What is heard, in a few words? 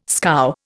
"scow", Indian